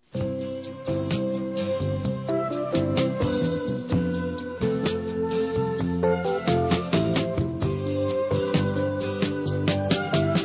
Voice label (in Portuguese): ao profissional, masc; Telemensagens; Convites e Agradecimentos; Telemensagens 2017